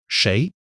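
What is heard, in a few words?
"谁", Mandarin Chinese; HSK1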